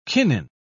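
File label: "kinin", British English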